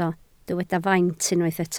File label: "faint", Welsh